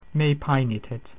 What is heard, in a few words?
"Sorry", Latin